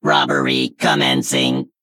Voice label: Male Mech Play